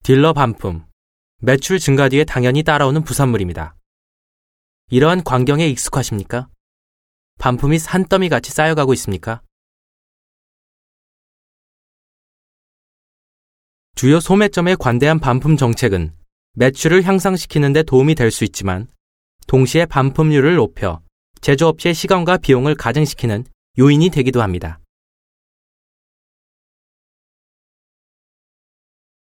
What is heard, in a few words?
Korean, Male